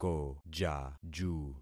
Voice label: "kojaju", concat syllables; Affixing experiment